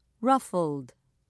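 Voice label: "ruffled", English, British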